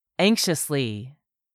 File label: "ANXIOUSLY", english; 3000 words